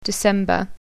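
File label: "december", English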